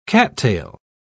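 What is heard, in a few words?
"cattail", British English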